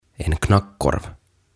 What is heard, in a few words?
"En Knackkorv" "Nakki"